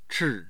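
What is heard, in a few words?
"chi", Mandarin Chinese, tone 4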